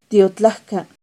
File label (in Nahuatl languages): "tiotlacca", Náhuatl; ya es tarde; NAHUATLAHTOLLI